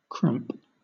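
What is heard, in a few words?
"cramp", British English; pronunciations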